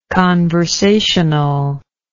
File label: "conversational"